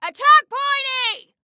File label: attack, Female